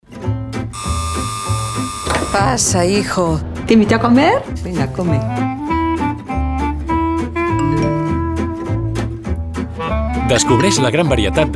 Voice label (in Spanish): comercial